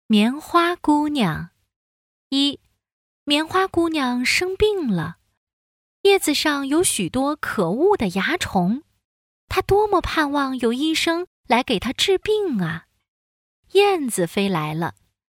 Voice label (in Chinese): 女, 有声读物; 优声课件参考音